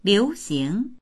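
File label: "liu2xing2", Mandarin Chinese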